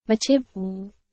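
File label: Burmese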